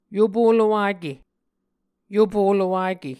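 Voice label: "yopo lowake", Muscogee words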